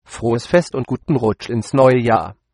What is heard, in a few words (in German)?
hppyxmas german